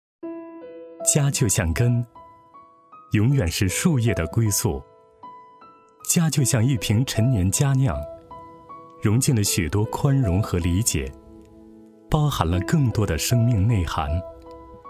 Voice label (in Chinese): 男 宣传片 暖心; 家就像根